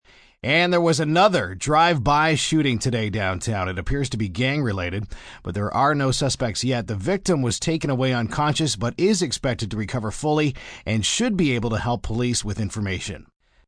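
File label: News Drive By Male, Broadcast, news, male news item; human voice speaking; on drive by shooting; Voice Box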